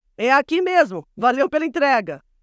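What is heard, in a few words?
npc job female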